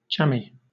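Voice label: "jammy", British English; pronunciations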